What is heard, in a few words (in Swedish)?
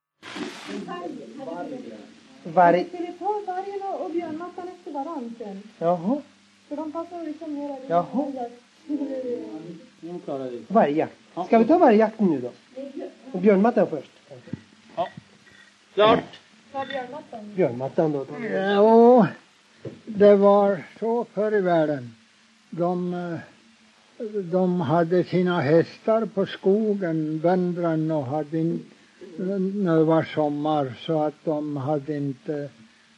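Huddunge Uppland, dialekter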